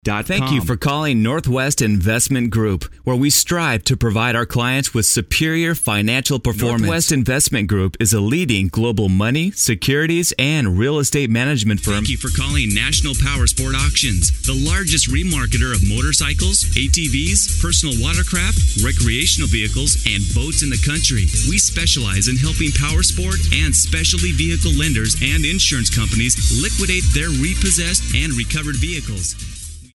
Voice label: English Male